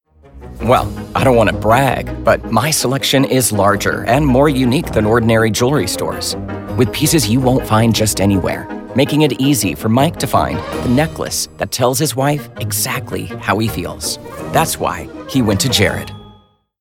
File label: Witty Snarky Attitude Confident; Voice Over Read Styles